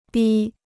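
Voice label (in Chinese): "逼", Chinese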